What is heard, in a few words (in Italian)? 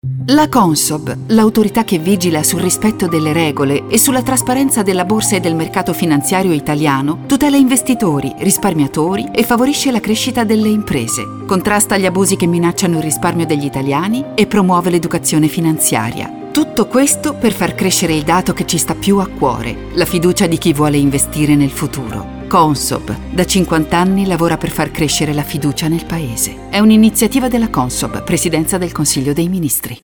radio; CONSOB